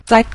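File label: "zai", Mandarin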